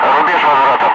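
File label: Russian speech, Ka-50 Wingman; Messages bingo fuel; DCS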